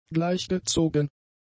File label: "gleichgezogen", German; adjectives